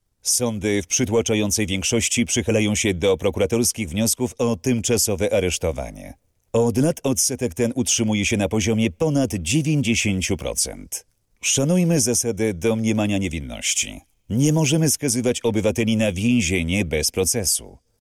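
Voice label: voice male narration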